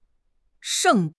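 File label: "sheng4", Chinese; HSK4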